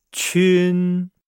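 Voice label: "qun", Chinese; Nihao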